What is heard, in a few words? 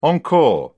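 "encore", British English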